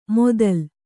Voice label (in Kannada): "ಮೊದಲ್", Kannada; modal; = ಮೊದಲು2